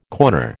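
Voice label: "corner", American English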